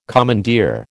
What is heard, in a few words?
"commandeer", American